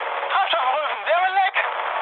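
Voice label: German tank damaged mood high, speech; War Thunder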